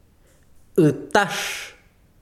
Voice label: "fruta", besiro, chiquitano

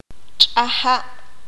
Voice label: "lavar", Q'anjob'al

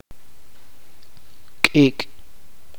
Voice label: "negro", chuj

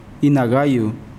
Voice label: Nawat palabras carne; pipil

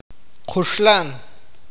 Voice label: "cortar", awakateko

